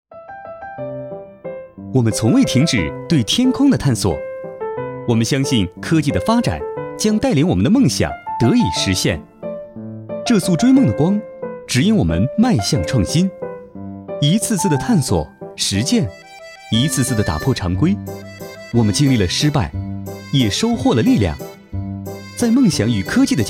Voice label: 男 企业宣传

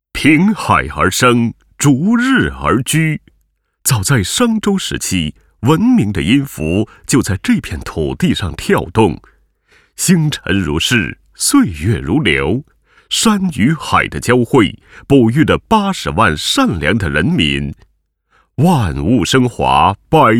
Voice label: 大气专题 城市宣传片; 平阳

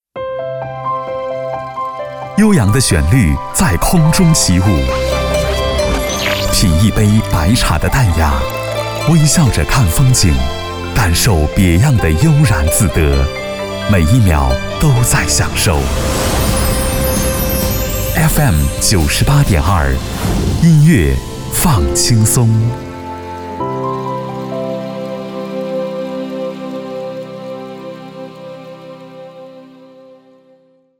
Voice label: 男 片头片花

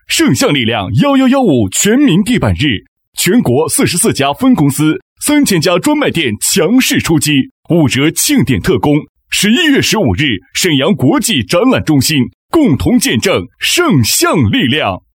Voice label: 男, 广告, 地板; 圣象地板

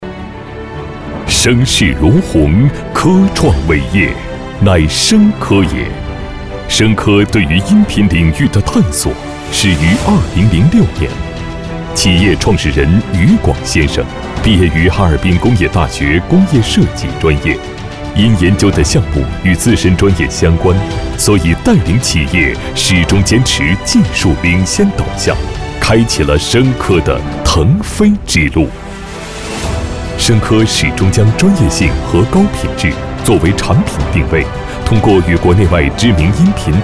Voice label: 男 企业宣传 大气品质

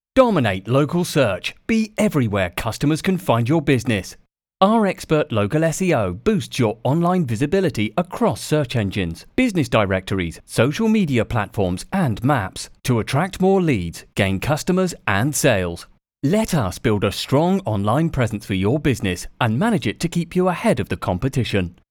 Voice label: 激情讲述

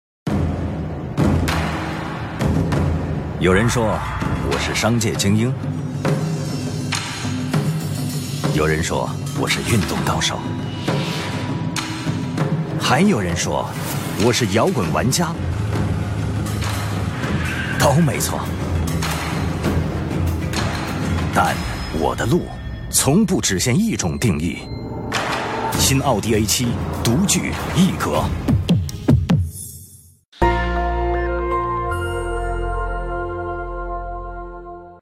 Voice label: 男 汽车广告

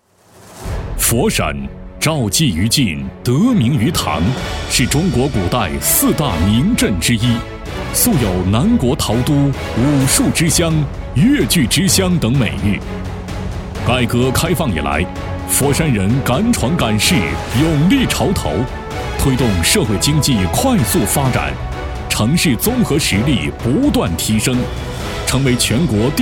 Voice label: 男-专题片-浑厚

